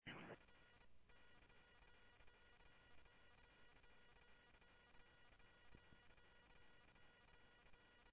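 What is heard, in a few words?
Sheriff East County, scanner audio trunk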